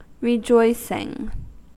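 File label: "rejoicing", American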